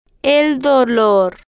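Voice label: "el Dolor", slow, Spanish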